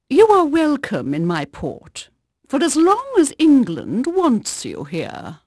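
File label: English female, voice; Pirates Of The Caribbean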